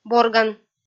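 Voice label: "борган", Komi, female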